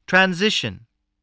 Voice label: "transition", voice

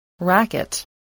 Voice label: "racket", english

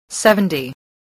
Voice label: "seventy", spelling

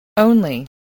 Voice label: "only", voice, spelling

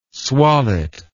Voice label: "swallet", English word

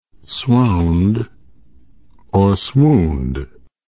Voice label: "swound", English word

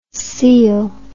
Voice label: "cele", English

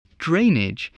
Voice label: "drainage", spelling

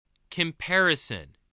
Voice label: "comparison", english

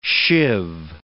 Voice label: "sheave", words

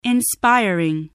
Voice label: "inspiring", voice spelling

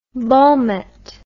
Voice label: "volmet", English

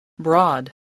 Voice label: "broad", spelling

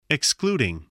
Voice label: "excluding", voice, english

